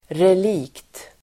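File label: "relikt", Swedish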